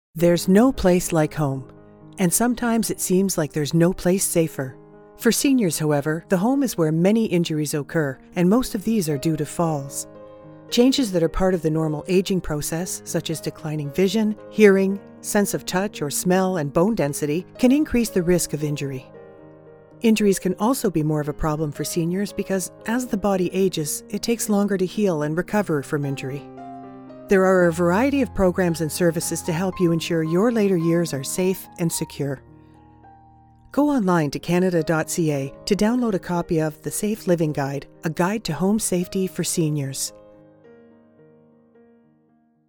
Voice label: Voiceover Television Ad